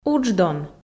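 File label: "удждон", Komi, female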